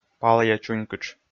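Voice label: "палъя чунькытш", Komi, male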